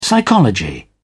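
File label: "psychology", British English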